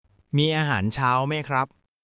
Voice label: Thai, basic Thai phrases

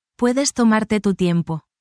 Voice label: spanish, spanish phrases

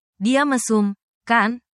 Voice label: indonesian phrases

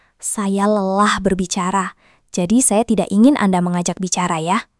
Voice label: indonesian phrases

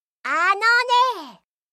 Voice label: anime, Irritated & Angry Phrases from Anime Girls

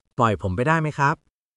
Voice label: Thai, basic thai phrases

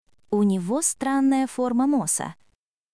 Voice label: Russian, Russian phrases; ロシア語のネガティブなフレーズ

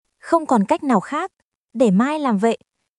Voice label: Vietnamese; ベトナム語; everyday conversations

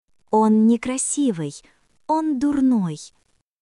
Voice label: Russian, russian phrases insults; ロシア語のネガティブなフレーズ

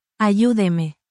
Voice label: spanish, spanish phrases

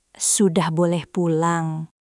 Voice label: indonesian phrases

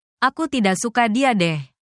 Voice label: indonesian phrases

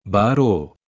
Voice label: anime, Japanese, Hilarious Anime Quotes

